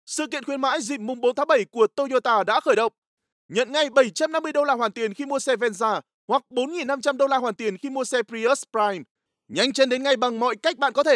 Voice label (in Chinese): 越南语男广告激情活力, 青年（18-35）; 越南语男5-Toyota广告-激情活力; 外语配音